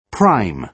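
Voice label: "prime", British English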